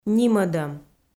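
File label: "нимӧдӧм", Komi-Zyrian, female; kpv audio dict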